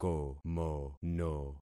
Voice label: "komono", concat syllables; Affixing experiment

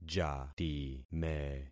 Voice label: "jatime", concat syllables; Affixing experiment

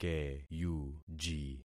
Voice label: "keyuji", concat syllables; Affixing experiment

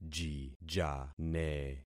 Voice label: "jijane", concat syllables; Affixing experiment

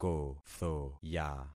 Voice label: "kothoya", concat syllables; Affixing experiment

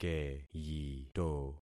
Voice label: "keyito", concat syllables; Affixing experiment

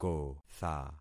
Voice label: "kotha", concat syllables; Affixing experiment

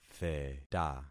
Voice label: "theta", concat syllables; Affixing experiment

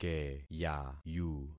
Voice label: "keyayu", concat syllables; Affixing experiment

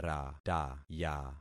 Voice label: "rataya", concat syllables; Affixing experiment

